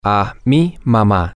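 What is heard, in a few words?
to my mother, spanish, male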